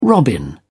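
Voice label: "robin", British English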